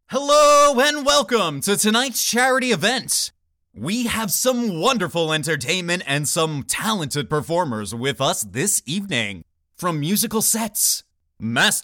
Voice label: Announcer MC Host